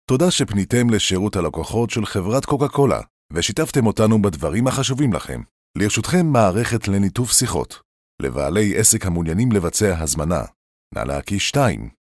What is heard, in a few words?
hebrew ivr